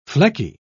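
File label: "flecky", British English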